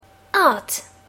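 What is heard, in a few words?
"art", English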